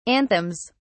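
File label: "anthems", British English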